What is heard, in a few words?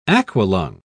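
"aqualung", British English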